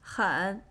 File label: "hen", Chinese